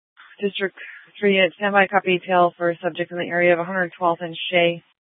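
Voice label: Scottsdale PD North Dispatch; Nth Dispatch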